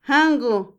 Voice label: cuántos, Otomí, mujer; INALI

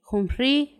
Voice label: estrella, Paipai; Vocabulary; INALI

